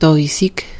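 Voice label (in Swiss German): "tàuisig", Alsatian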